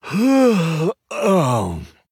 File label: Male Night Elf Dormant Trigger